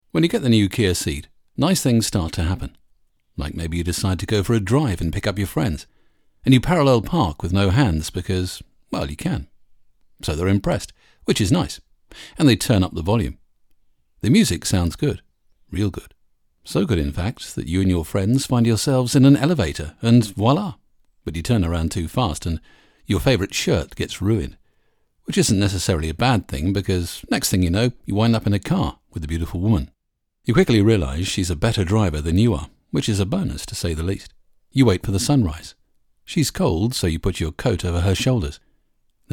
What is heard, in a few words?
Car commercial read; Kia